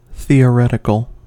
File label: "theoretical", US; pronunciations